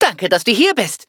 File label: levelup, de; cookie run